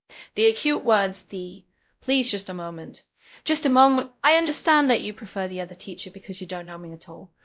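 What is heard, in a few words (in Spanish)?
Voice Acting, es, female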